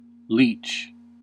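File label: "leach", American English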